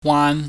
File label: "waan", Chinese